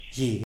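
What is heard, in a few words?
"gi", Tlacochahuaya Zapotec; light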